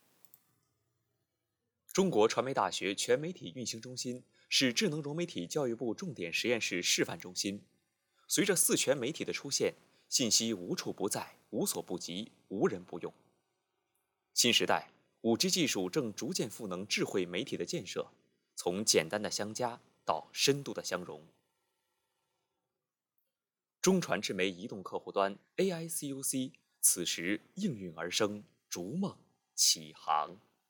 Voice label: 宣传片片头

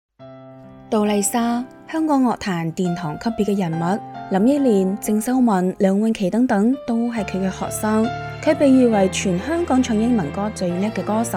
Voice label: 粤语

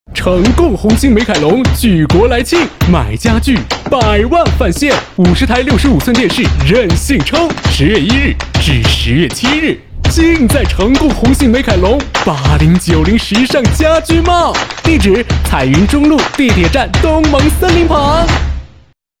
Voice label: 公交广告; 红星美凯龙

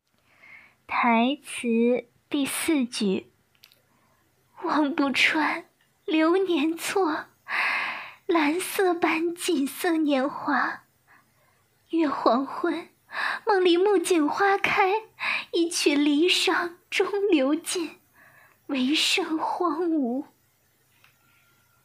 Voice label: 稍高点音

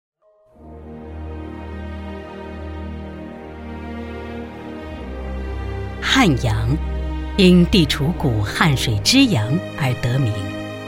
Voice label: 城市宣传片

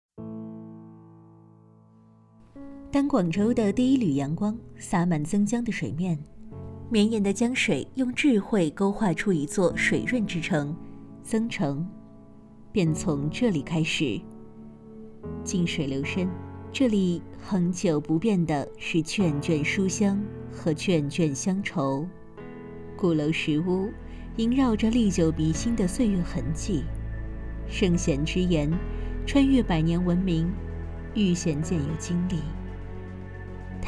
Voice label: 宣传片; 增城

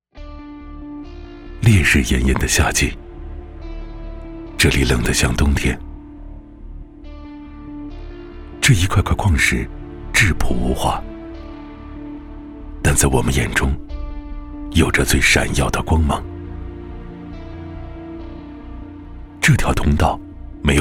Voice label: 专题讲述

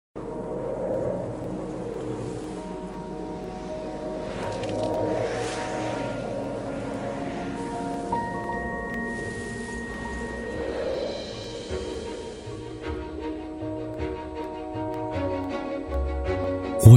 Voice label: 展示片; 首望

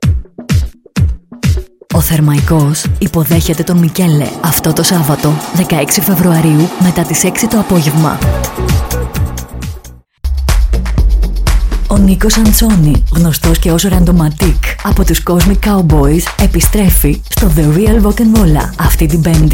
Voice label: 希腊语女广告合辑

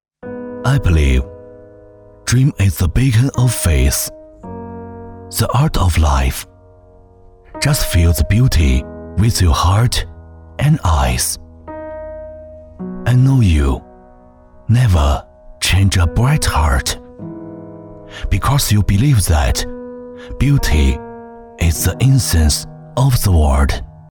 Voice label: 英文走心品质抒情广告

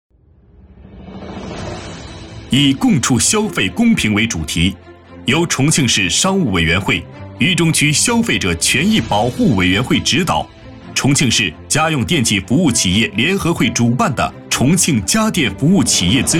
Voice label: 党建汇报 公正沉稳; 消费权益

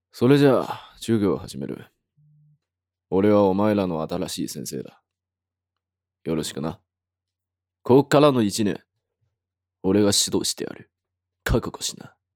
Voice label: 严厉教师

